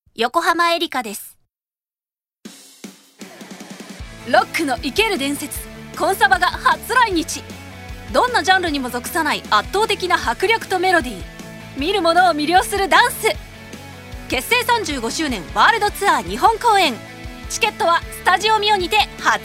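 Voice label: commercial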